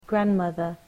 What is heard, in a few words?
"grandmother", English